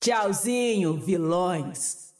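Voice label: Portuguese; 索杰恩（守望先锋2）; 终极技能; Tchauzinho, vilões!; 葡萄牙语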